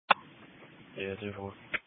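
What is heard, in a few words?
Police Patrol (Dispatch)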